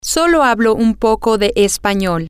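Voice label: i Only Speak A Little Language, spanish, female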